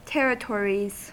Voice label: "territories", US; pronunciations